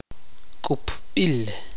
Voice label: "cuchillo", Tektiteko